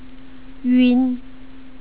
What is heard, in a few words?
yun, pronunciation; Finals